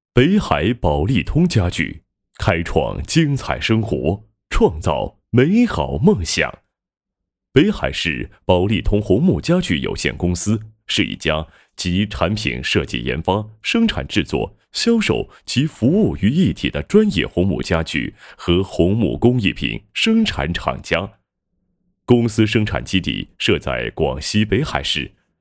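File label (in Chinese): 企业宣传家具宣传配音; 北海宝利通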